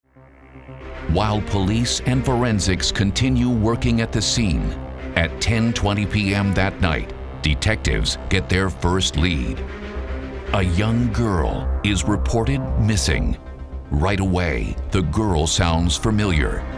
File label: BROADCAST NARRATION; men